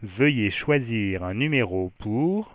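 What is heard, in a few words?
please select a number for, French; Samsung SGH-i310; Voice Sounds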